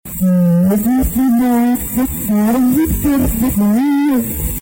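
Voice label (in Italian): "Imma", Italian; Imma giù poi dà a testardi pè giocà, neh?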